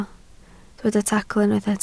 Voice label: "tacl", Welsh

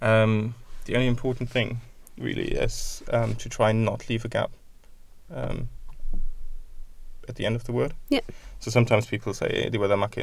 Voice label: "macyn", Welsh

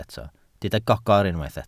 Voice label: "gogr", Welsh

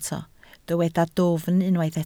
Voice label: "dwfn", Welsh

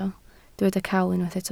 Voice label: "cawl", Welsh

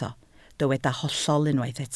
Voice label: "hollol", Welsh

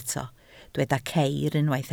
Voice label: "ceir", Welsh

